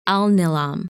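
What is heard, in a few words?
"Alnilam", English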